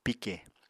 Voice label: "pike", Apatani